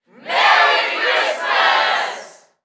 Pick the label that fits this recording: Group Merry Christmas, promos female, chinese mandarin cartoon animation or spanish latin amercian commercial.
Group Merry Christmas